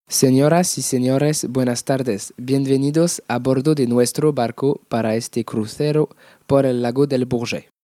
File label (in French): ACCUEIL, audio guide; ESP